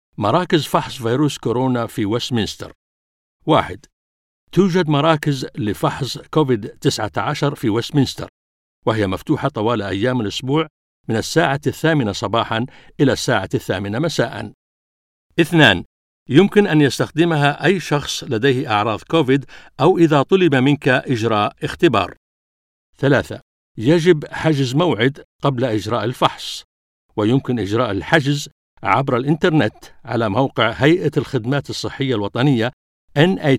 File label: arabic coronavirus testing centres in westminster